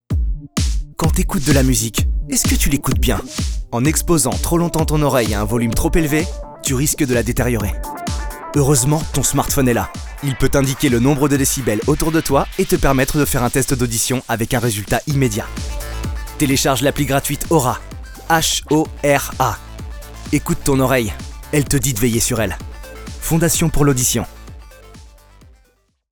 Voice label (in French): SPOT RADIO Spot Audio